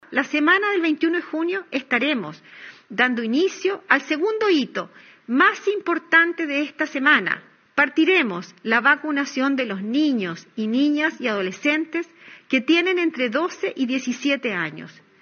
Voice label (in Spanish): cuña